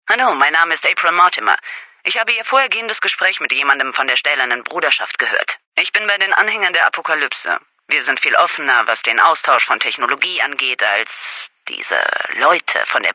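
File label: Female adult dialogue radio conversation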